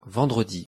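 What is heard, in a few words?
"vendredi", French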